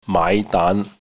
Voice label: "maai5 daan2", cantonese

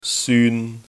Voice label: "syun1", cantonese

